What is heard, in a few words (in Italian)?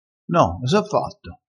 "osa", crespinese; vocabolario dialettale